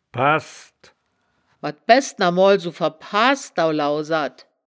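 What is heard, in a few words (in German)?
"pahsd", Wittlicher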